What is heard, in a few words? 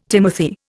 "timothy", Indian